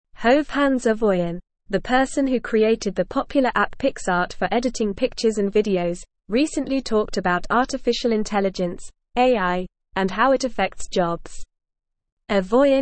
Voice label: English Upper Intermediate NORMAL Reading; English Newsroom; AI A Tool to Enhance Human Capabilities